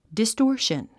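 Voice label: "distortion", English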